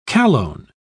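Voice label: "chalone", British English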